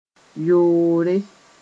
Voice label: "yoore", Moore; penis